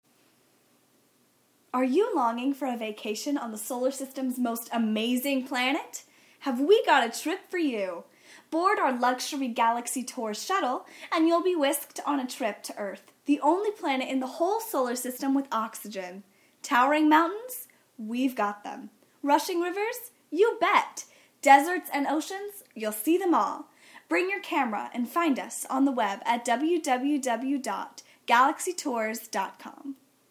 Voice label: commercial; Galaxy Tours